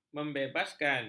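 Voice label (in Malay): "membebaskan", Malay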